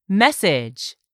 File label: "message", English; 3000 words